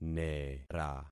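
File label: "nera", concatenated syllables; Affixing experiment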